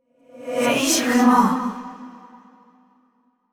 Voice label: frustrated; yamiko